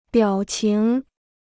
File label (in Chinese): "表情", Chinese